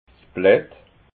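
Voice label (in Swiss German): "blatt", Alsatian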